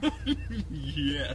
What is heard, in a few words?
"yes", male laughing